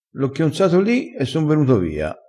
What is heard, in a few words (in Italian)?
"chionza", crespinese